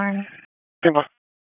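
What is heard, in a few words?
Wake County EMS Dispatch, p25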